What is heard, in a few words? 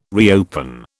"reopen", British